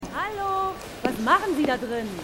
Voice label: Frau; Outer Limits

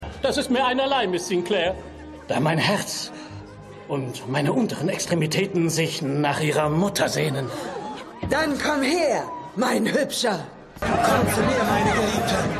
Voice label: Braeutigam Brautmutter, Stimmproben

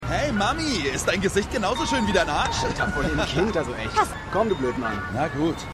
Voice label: Junger Mann, Stimmproben; LO SVU

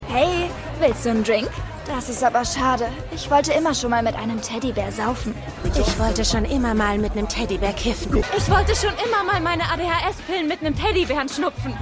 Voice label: Studentin

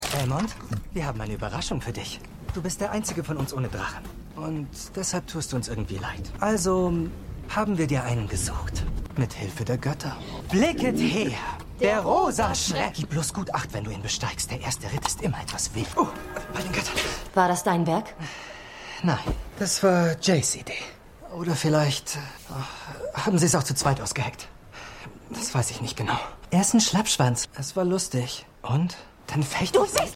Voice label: Jung, Stimmproben; Prinz Aegon Targaryen; House Of The Dragon

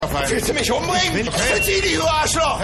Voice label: Obdachloser; Rescue Me

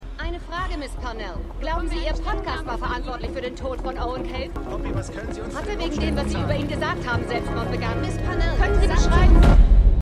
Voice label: Reporterin Reporter Reporterin; Truth Be Told

